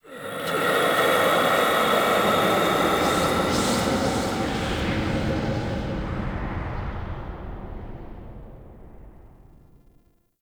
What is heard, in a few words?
Spirit Talk, Crypt Ambience; One Offs; Champion Crypt